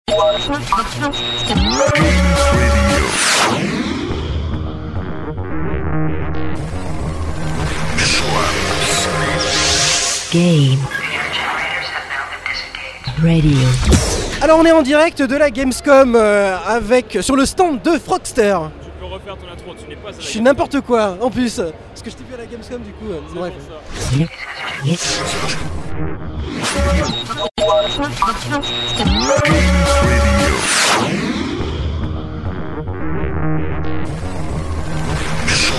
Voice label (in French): Interview